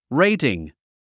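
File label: "rating", English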